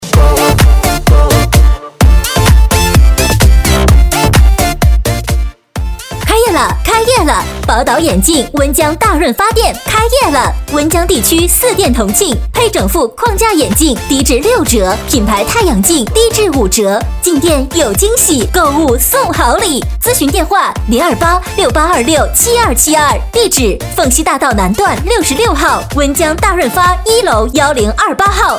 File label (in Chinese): 女, 促销 眼镜; 宝岛眼镜